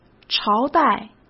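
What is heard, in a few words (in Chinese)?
"朝代" Mandarin Chinese; hsk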